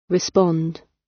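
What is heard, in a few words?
"respond" British; Business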